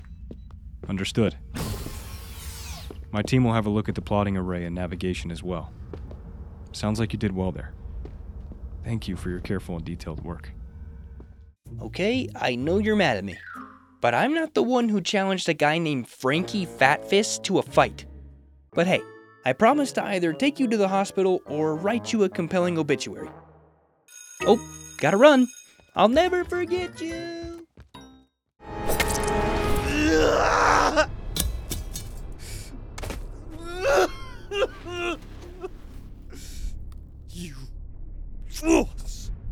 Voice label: Character